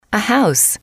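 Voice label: "a house", female, English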